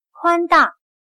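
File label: "宽大", Chinese; ancho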